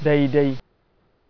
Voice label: "exactly", Hausa

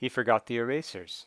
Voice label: Declarative He Forgot The Erasers

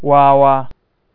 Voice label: "fool", Hausa

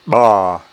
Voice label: ba implosive

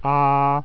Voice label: High Pitch

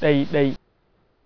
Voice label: one at a time, Hausa

